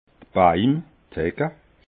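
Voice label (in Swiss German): "baim", Alsatian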